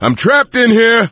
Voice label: call for rescue, voice; Left 4 Dead 2; coach